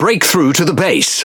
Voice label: Speech Quick Commands Enemy Base, EN GB; World Of Warships